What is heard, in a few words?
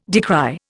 "decry", Indian